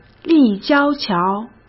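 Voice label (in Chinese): "立交桥", Mandarin; chinese-flashcards; hsk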